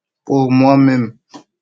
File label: voice message